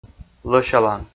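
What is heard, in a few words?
"лӧсялан", Komi, male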